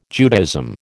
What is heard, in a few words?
"Judaism", American